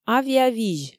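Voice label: "авиавизь", Komi, female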